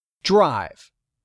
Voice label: "DRIVE", English; 3000 words